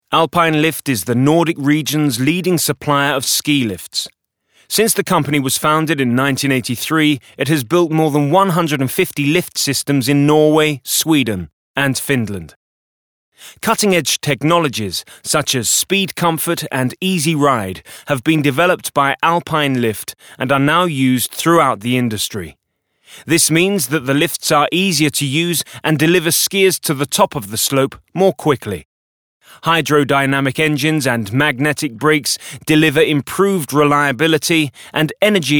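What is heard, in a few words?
British English corporate presentation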